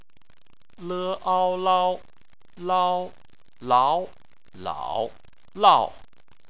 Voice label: "lao", chinese